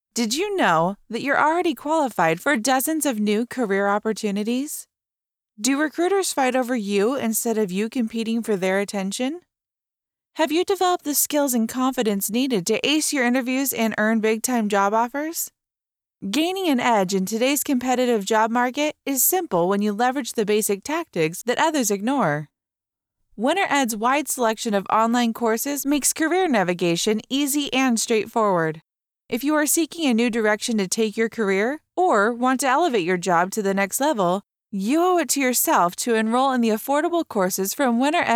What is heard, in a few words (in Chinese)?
外籍英女, 广告, 职业指导课程